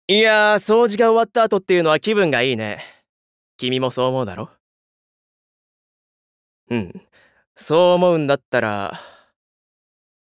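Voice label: voice sample, neat-freak young man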